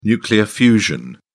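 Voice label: "nuclear fusion", British English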